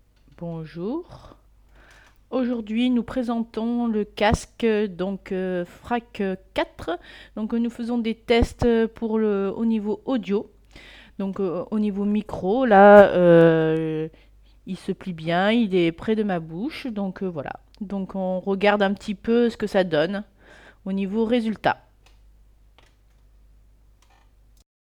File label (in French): Test Micro